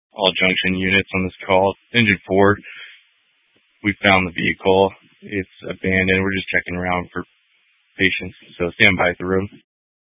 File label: Incident Tac 1, Scanner audio recording